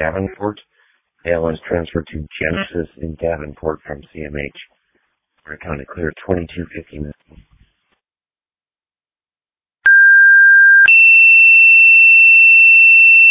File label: Ambulance, dispatch; Sumner